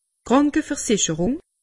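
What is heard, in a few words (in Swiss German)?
"krankeversicherung", Alsatian; medecine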